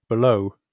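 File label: "below", British English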